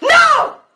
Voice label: "no" loud